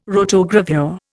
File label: "rotogravure", Indian